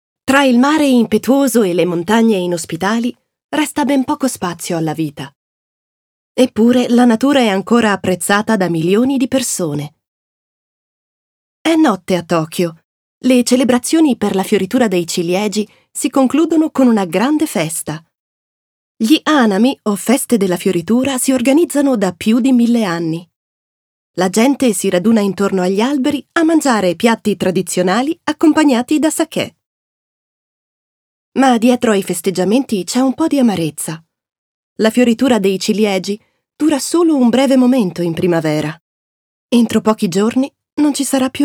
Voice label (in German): OFF Voice CH IT